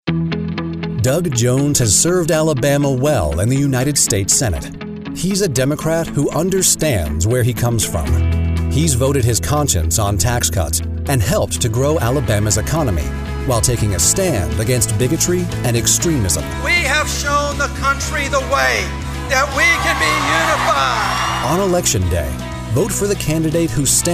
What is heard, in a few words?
Voice Actor Political Commercial